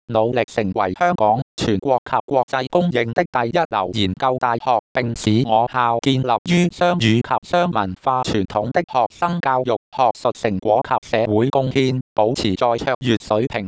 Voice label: Male